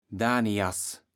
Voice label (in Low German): "Daniass", kaevels platt